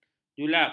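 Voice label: "julap", Malay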